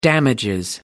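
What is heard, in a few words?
"damages", British English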